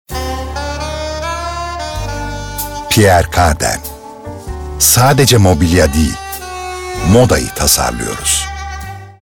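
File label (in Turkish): reklam, Turkish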